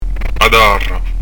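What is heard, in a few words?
"a tarra", Prononciation du corse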